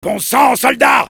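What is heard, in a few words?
Soldier negative vocalization, French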